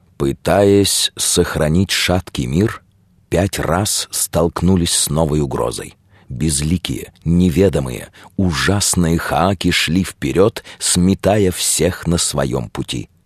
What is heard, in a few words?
narr